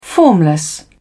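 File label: "formless", English, British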